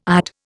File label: "ide", Indian